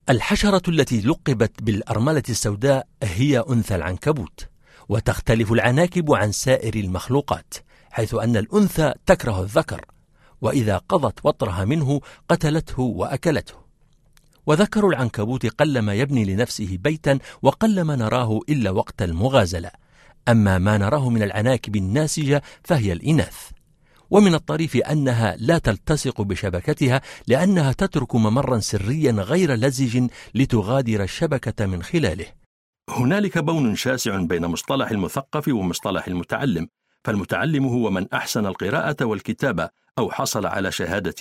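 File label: Arabic, General; GoLocalise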